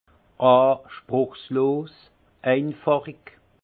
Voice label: "anspruchlos", alsace; vin; modeste

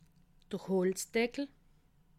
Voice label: "holtz deckel", alsace

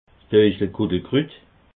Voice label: "doisiguldekrut", alsace

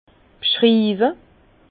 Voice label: "bschriiwe", Bas Rhin; vin; décrire

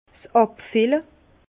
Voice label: "abfille", Bas Rhin; vin; embouteillage

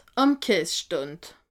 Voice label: "kaastand", alsace

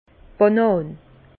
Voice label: "banan", Bas Rhin; vin; banane

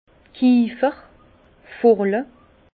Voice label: "kiefer", Bas Rhin; vin; pin

